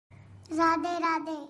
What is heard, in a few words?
Radhe Radhe Baby Voice Sms Message Tone